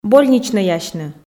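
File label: "больничнӧясьны", Komi, female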